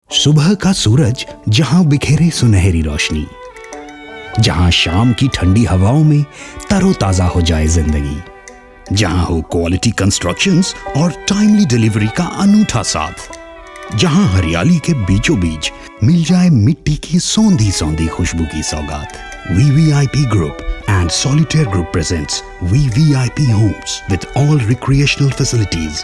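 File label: Commercial Hindi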